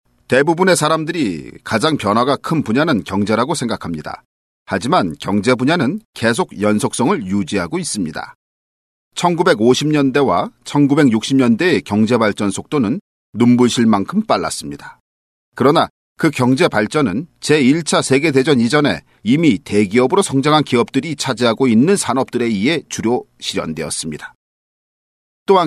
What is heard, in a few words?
m